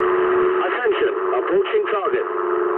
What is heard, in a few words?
eng near waypoint mood low, speech eng